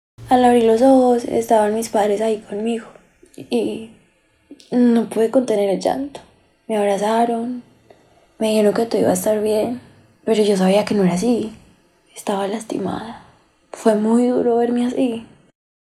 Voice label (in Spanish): Adolescente; casting trineo tv